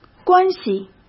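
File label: "关系", Mandarin Chinese; chinese flashcards; hsk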